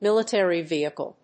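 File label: "Military vehicle", English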